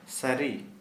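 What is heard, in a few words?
Santali, male, true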